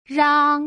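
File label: "rang", Mandarin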